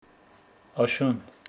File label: Homshetsi fall